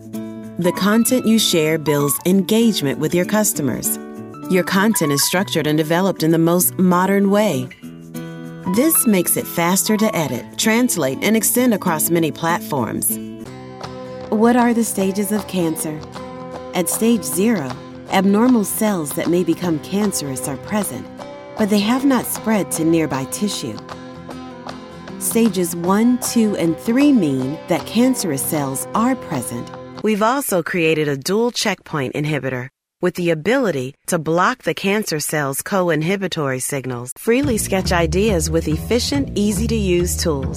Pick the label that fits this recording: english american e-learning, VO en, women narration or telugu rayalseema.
english american e-learning